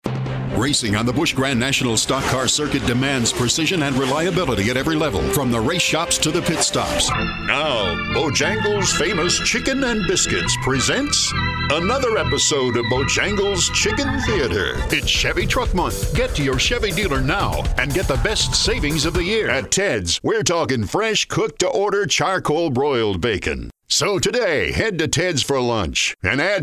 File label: Commercial